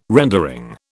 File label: "rendering", British